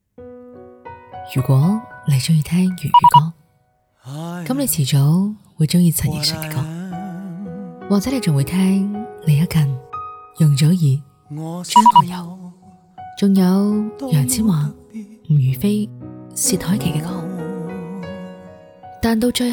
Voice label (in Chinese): 粤语旁白独白粤语歌